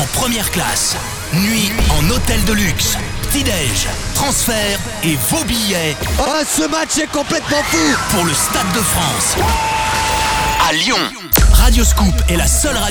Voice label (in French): promo sport finale coupe ligue